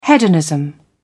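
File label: "hedonism", British English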